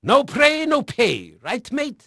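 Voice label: Dutch male, voice; Pirates Of The Caribbean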